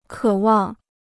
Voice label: "渴望", Chinese

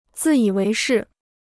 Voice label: "自以为是", Chinese

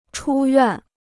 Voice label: "出院", Chinese

